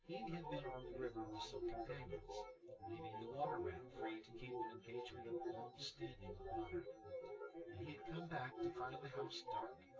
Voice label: test male synthconv

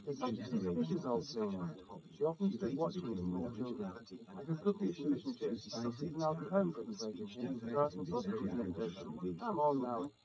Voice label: male srec 130ms 20cm sim; SiSEC11; underdetermined

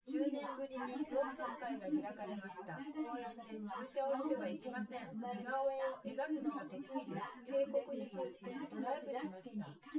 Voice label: female, synthconv, src

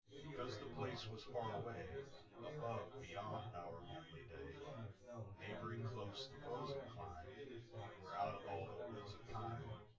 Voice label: male synth conv

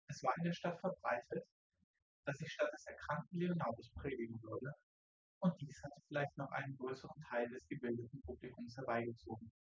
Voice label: male synthconv; SiSEC10; underdetermined

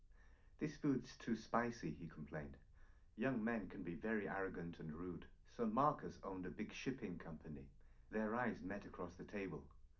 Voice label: male4 liverec 250ms 5cm; SiSEC11; underdetermined

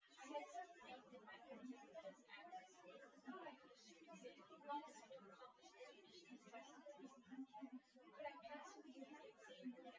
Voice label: female; SiSEC08; SiSEC underdetermined

